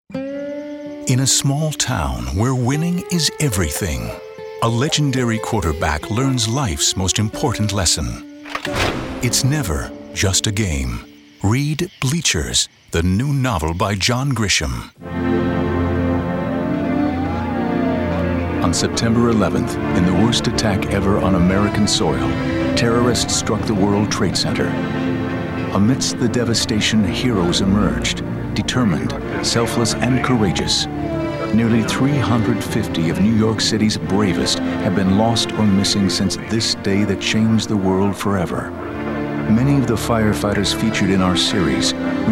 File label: intimate